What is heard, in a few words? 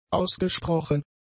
"ausgesprochen", German; adjectives